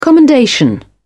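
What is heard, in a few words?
"commendation", English, British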